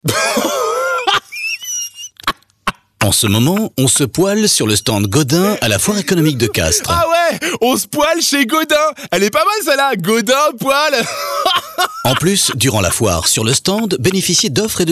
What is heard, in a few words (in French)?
radio pub spot